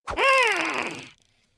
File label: avatar emotion angry